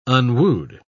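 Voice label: "unwooed", English, British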